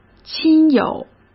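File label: "亲友", Mandarin Chinese; hsk; chinese-flashcards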